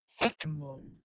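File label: "h2akmon", Proto-Indo-European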